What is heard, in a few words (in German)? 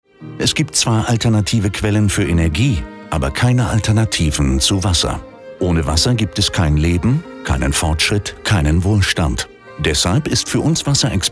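Erklärfilm Wasser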